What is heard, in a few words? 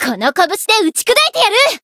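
Voice variable chant, Japanese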